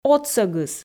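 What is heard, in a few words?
"отсӧгыс", Komi, female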